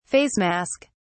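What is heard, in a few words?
"face mask", British English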